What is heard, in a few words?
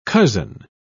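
"cozen", British English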